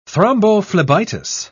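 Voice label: "thrombophlebitis", British English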